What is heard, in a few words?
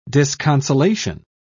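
"disconsolation", English, British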